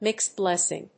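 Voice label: "mixed blessing", English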